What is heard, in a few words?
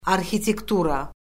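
"arxitektura", Azerbaijani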